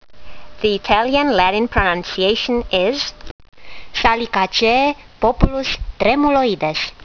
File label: latin; Populus tremuloides